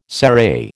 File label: "serai", American